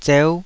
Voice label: "zeu5", Taiwanese

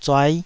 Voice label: "zuai5", taiwanese

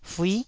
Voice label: "fui9", taiwanese

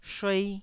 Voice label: "shuei1", taiwanese Syllables

